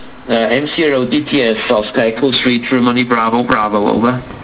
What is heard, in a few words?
drm voice 20m; Received with the new MELP Codec in WinDRM